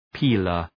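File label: "peeler", English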